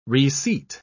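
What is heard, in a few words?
"reseat", British English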